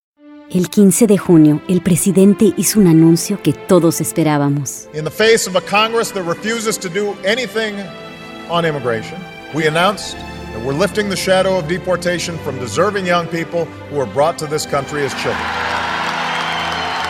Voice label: spanish latin american political ads; Atriz de dublagem mexicana-americana indicada ao prêmio que trabalha há mais de 20 anos em